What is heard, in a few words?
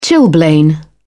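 "chilblain", British English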